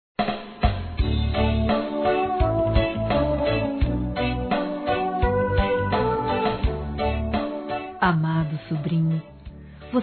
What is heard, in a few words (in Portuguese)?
Telemensagens, Aniversários, Fem; SOBRINHO